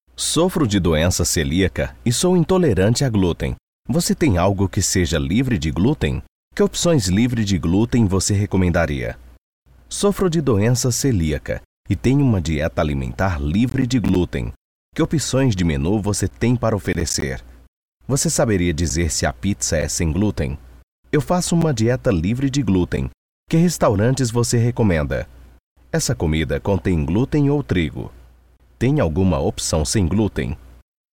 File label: Portuguese (Brazil), Male